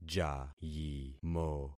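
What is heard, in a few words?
"jayimo", concatenated syllables; Affixing experiment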